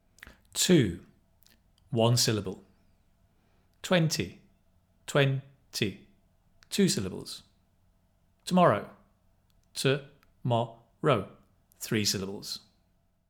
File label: ielts pronunciation syllables in word stress